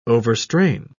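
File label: "overstrain", English, British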